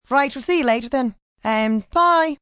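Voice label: "See Ya" Irish female; Goodbyes; VoiceBox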